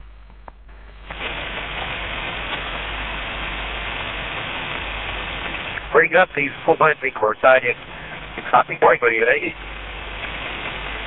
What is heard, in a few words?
faint radio broadcasts